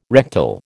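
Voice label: "rectal", American English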